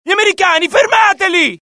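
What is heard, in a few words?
alert, Italian; mohaa; dialogue; sighted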